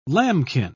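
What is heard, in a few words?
"lambkin", British English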